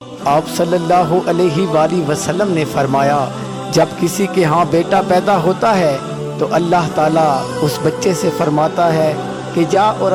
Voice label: Islamic dialogue ringtone

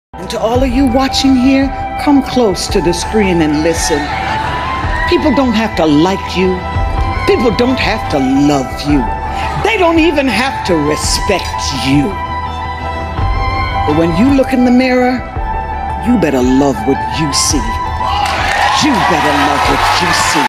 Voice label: dialogue ringtone

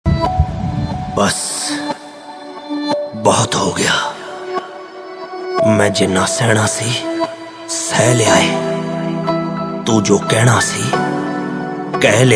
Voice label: Dialogue ringtone

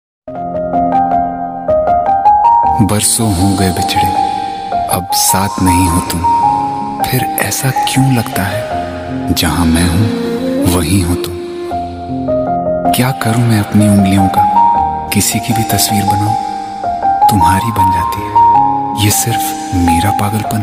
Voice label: Song Dialogue, dialogue ringtone

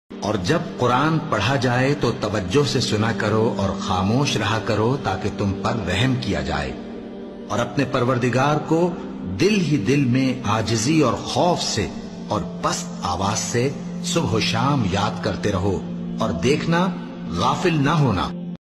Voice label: Quran translation islamic ringtone

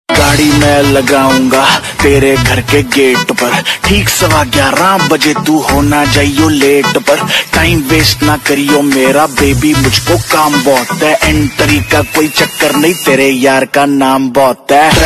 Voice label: hindi rap ringtone

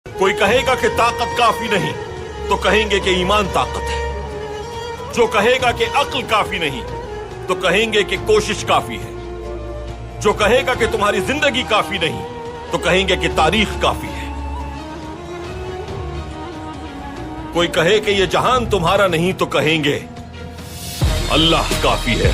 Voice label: islamic dialogue ringtone

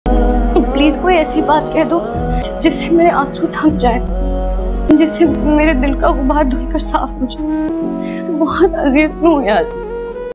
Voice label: dialogue ringtone

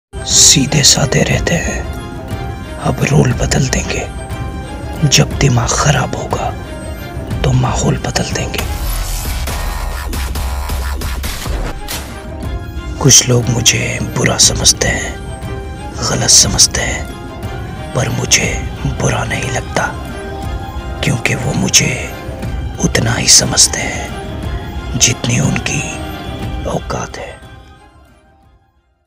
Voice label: dialogue ringtone

